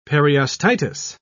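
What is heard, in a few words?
"periostitis", British English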